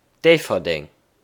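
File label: "Déifferdeng", Luxembourgish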